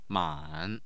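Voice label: "man3", Mandarin Chinese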